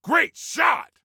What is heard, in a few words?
Compliment Great Shot, Soldier Male; Soldier Male 03